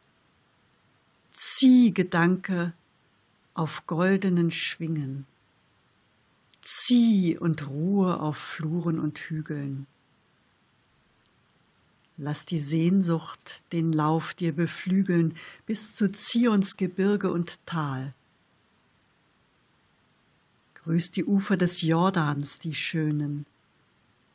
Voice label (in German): Gedicht vorgetragen; Giuseppe Verdi; Nabucco